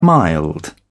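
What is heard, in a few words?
"mild", British English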